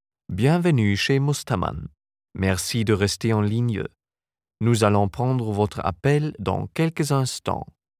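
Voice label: french interactive voice response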